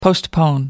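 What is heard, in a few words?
"postpone", English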